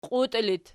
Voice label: "qiutii", Archi

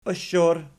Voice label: "oshor", Archi

